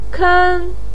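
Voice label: "ken", Mandarin Chinese, first tone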